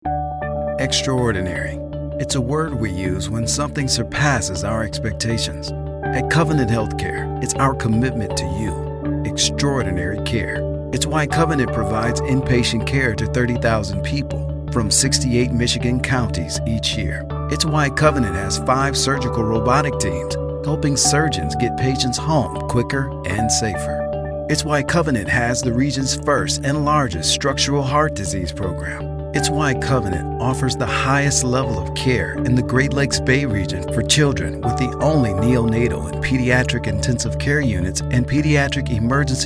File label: heartfelt